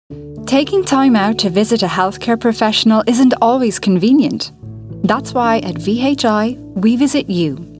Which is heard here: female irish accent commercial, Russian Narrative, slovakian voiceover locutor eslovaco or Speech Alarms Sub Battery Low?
female irish accent commercial